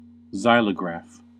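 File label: "xylograph", US English; pronunciations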